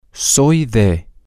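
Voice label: Spanish, male; i Am From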